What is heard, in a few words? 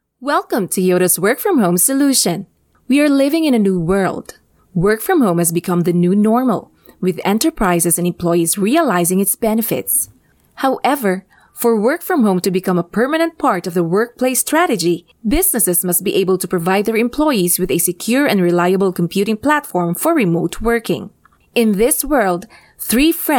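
explainer english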